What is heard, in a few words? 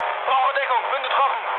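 German aircraft damaged mood med, speech; War Thunder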